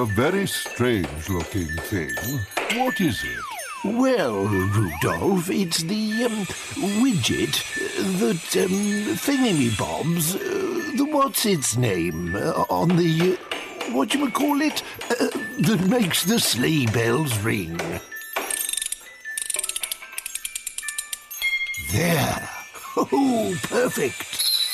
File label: Christmas Santa